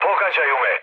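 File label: Serbian aircraft damaged mood low, speech; War Thunder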